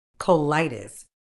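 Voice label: "colitis", British English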